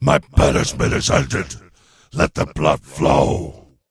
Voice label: Spawn Yell; Atalalarion